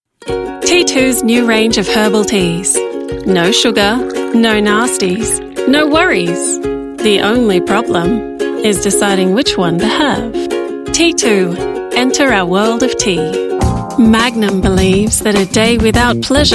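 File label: AU English Commercial, Australian English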